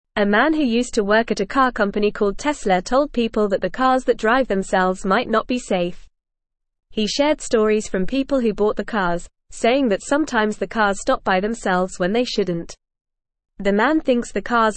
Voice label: English Beginner FAST Reading; Cars That Drive Themselves Might Not Be Safe; English Newsroom